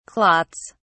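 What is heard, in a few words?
"clots", British English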